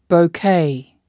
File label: "bouquet", spoken